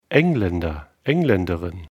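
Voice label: "engländer", German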